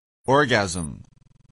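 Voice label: "orgasm", English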